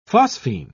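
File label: "phosphine", British English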